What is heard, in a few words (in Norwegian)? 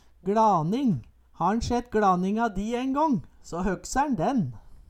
"gLaning", Numedalsmål